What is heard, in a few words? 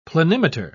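"planimeter", British English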